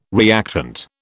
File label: "reactant", British